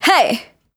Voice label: Vocal "Hey", exclamation